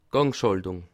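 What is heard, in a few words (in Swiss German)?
"gangschaltung", Bas Rhin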